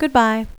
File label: female goodbye